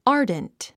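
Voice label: "ardent", English word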